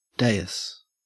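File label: "dais", Australian English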